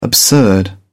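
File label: "the absurd", British English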